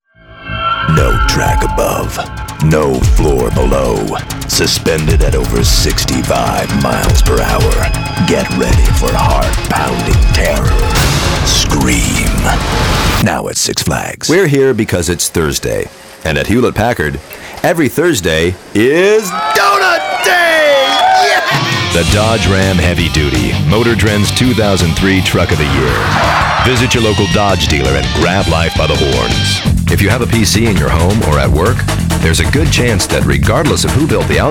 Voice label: Commercial